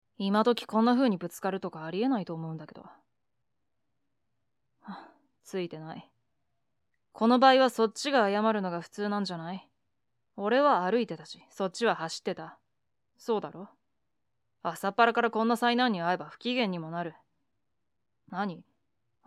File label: boy; 男の子に、ぶつかってみる。; 許してくれない子